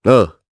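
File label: Vox, voices, Japanese; Riheet; kingsraid audio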